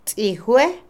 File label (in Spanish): nuera(de hombre), Otomí, mujer; Parentesco; Vocabulary; INALI